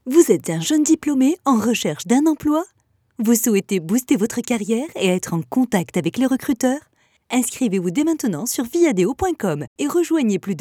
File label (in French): Radio Voix